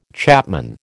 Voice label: "chapman", American